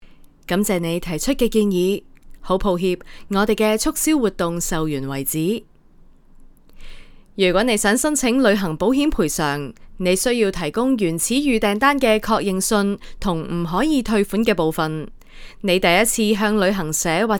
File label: chinese cantonese ivr